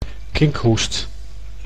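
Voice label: "kinkhoest", Dutch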